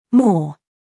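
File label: "scandal", American; more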